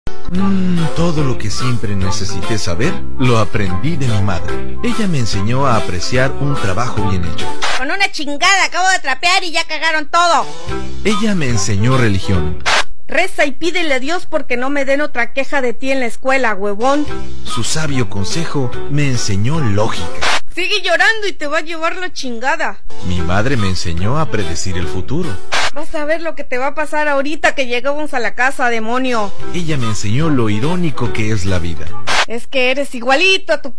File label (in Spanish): audio chistoso gracioso; Dia de las madres